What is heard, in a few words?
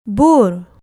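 "bur", Malay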